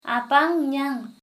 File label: "easy", Sora